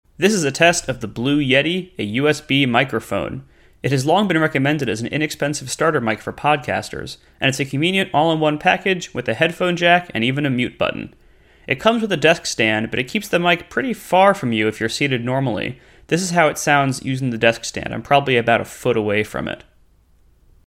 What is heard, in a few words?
Blue Yeti desk distance, microphones